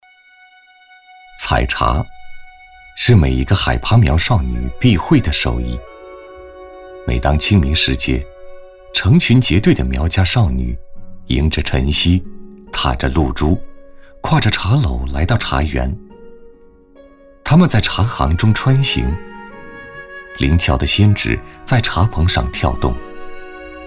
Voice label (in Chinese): 男 专题 采茶专题